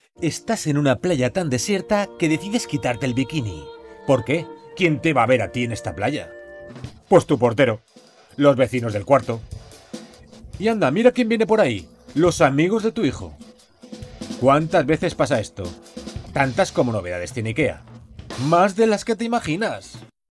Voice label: spanish promos, spanish voice actors